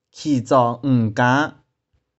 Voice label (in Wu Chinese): "起早五更", 台州語; 五更天。[just before dawn]; 玉環台山